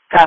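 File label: Police Patrol (Dispatch)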